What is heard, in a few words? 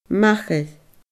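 "machair", Scottish Gaelic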